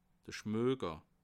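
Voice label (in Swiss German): "schmoker", Bas Rhin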